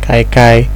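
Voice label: "kaikai", Nigerian Pidgin, male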